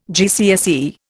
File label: "GCSE", Indian